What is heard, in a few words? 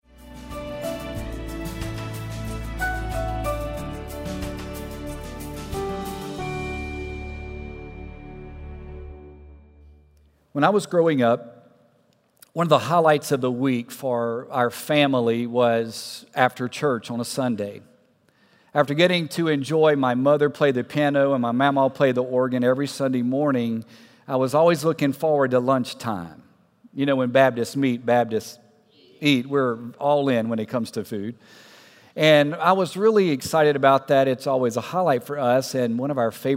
Sermon English